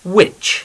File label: "witch", English